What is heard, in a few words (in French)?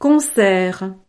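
"concert", French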